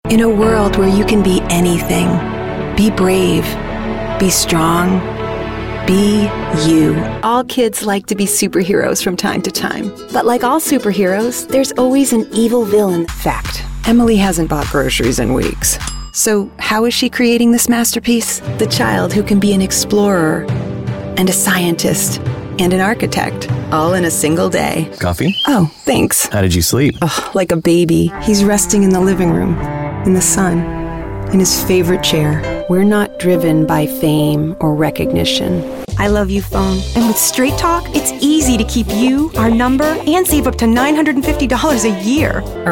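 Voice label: us commercial, American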